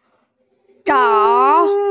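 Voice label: "zhao3", Mandarin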